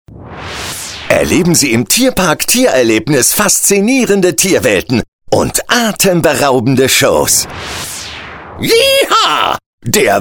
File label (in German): begeistert aktionistisch